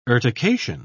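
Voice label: "urtication", British English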